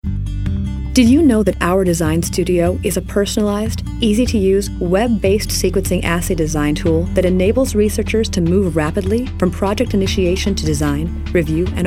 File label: On Hold Message